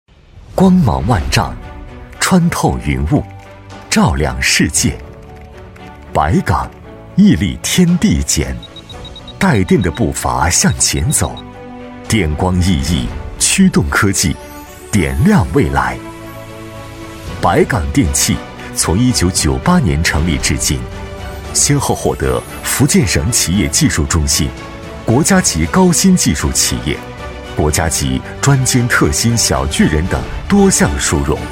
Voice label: 男 科技感; 百岗电气

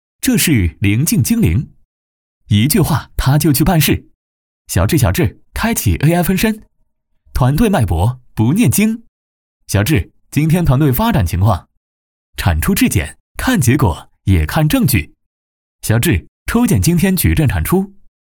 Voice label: 广告; 铃镜精灵

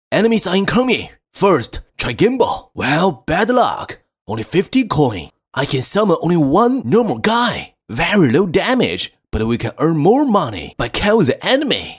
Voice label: 男, 信息流

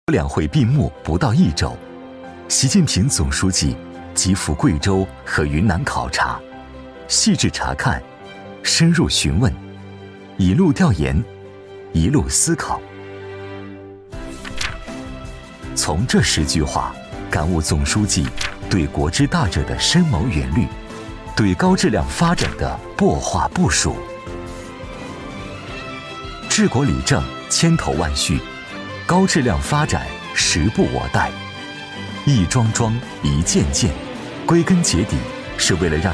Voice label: 男 解说; 人民日报

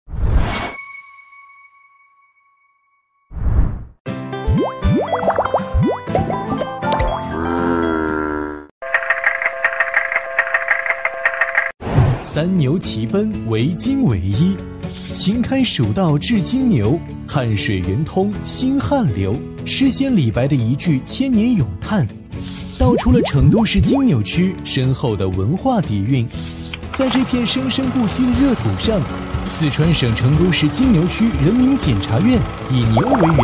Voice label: 男; 成都市检察院

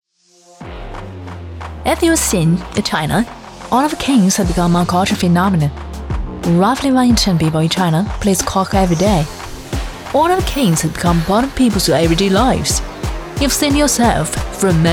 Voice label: 女 英文信息流

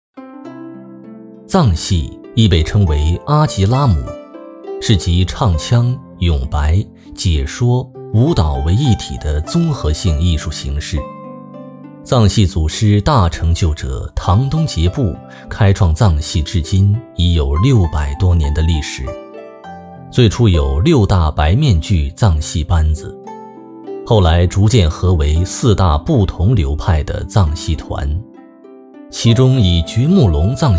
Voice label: 男, 纪录片; 藏戏

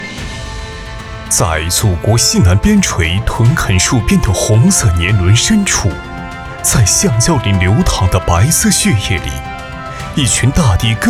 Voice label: 男 专题 企业类

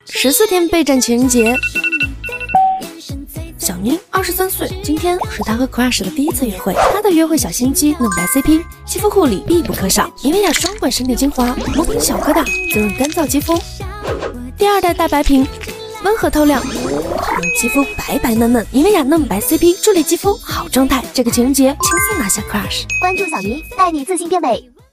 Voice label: 女 活力广告; 妮维雅

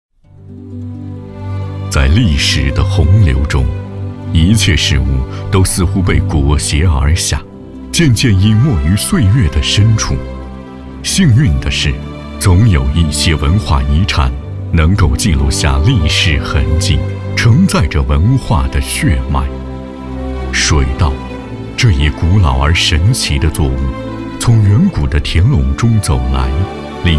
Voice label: 男 历史文化; 在历史的洪流

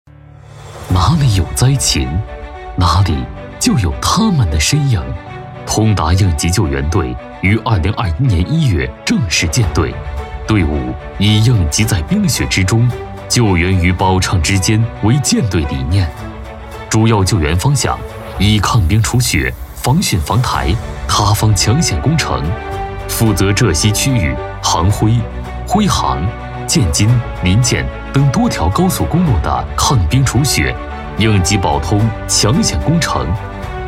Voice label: 男 专题 应急救援队; 通达应急救援队

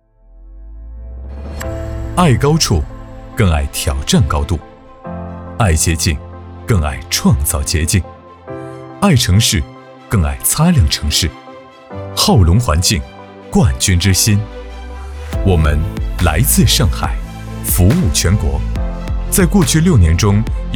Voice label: 男, 科技感; 灏隆环境; 冠军之心

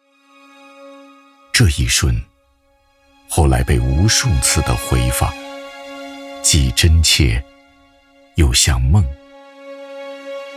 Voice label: 男 悠悠述说

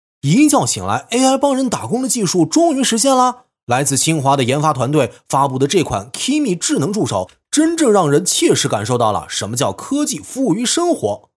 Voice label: 男, 旁白; 一觉醒来 AI帮人

